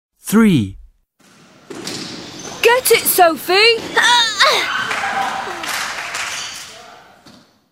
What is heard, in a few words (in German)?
Hörtext; Englisch; Plymstock clubs